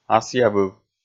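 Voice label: "асъявыв", Komi, male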